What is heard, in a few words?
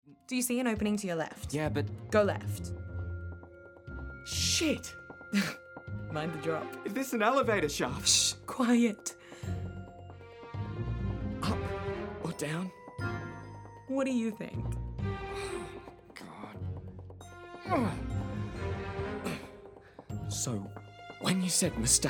Drama, female